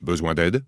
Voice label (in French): besoin aide, human male; PhoenixCraft-1.16.5; PhoenixNPCPack; minecraft